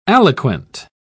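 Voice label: "aliquant", British English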